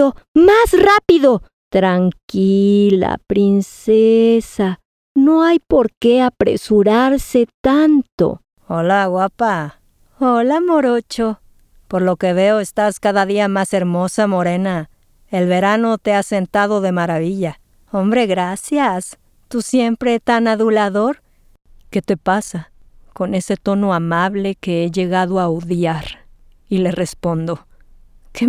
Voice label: Spanish MX, F, Narration, mexican spanish

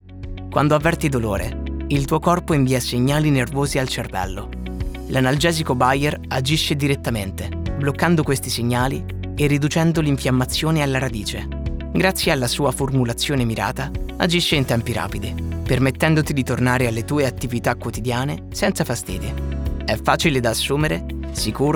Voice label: Italian M Medical

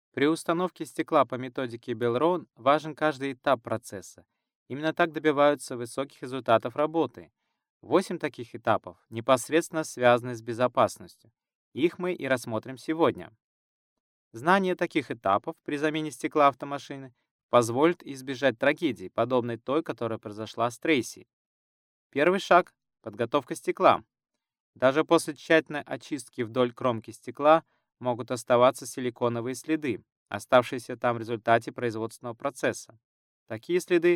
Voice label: Russian, M, Corporate

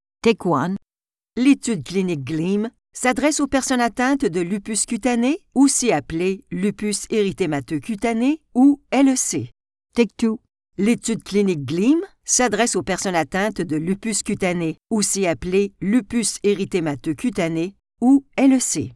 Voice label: French Canadian F Narration

